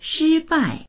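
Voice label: "shi1bai4", Mandarin Chinese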